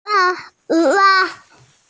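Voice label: "qing wa", Mandarin Chinese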